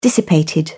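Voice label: "dissipated", British English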